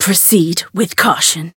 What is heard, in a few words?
Voice encount fear; anaberu